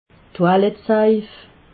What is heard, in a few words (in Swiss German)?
"toilettsaif", alsace